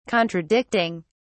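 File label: "contradicting", British English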